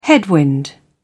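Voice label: "headwind", British English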